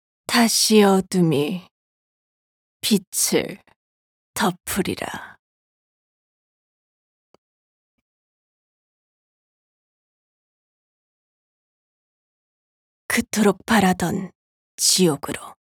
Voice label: 분위기있는; 디아블로

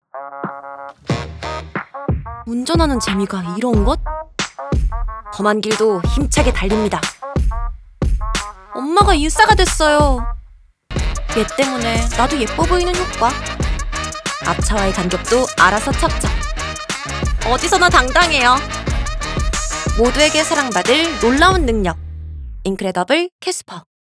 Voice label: 당당함 대학생 20대

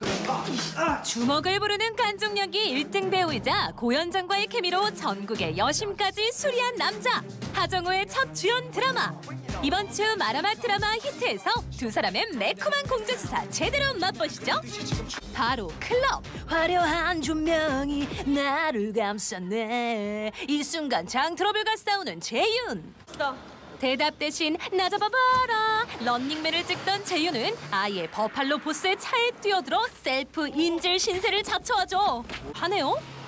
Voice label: NA 코믹 에너지 하이텐션

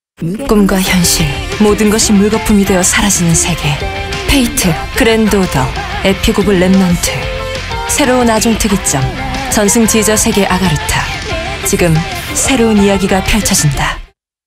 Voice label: 매력적인 톤의 게임광고 진중 30대

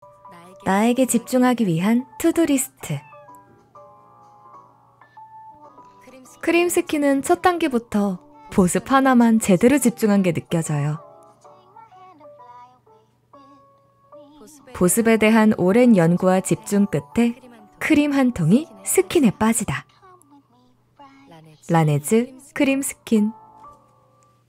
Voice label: 일반인 느낌 20대; 라네즈

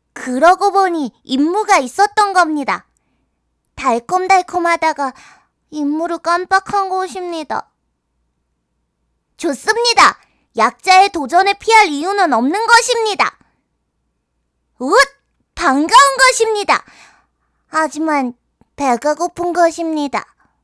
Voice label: 10대 엉뚱한 남아 둔한

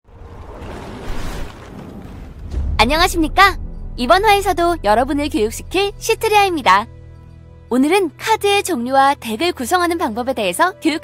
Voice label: 건강하고 명랑한 소녀 튜토리얼 명랑한 20대; 레전드 오브 룬테라; 시트리아

